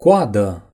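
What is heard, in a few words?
"tail", Romanian; gcompris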